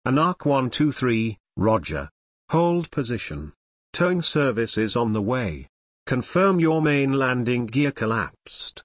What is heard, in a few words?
ATC Belly landing